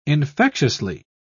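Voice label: "infectiously", British English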